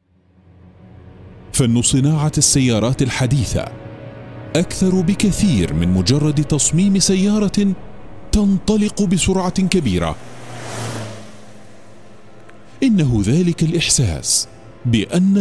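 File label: Arabic, male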